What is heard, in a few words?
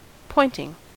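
"pointing", US English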